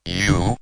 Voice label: robot you, Robotic or Stuttery or Spooky